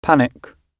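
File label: "panic", British English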